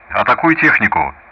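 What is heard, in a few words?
Speech Russian; DCS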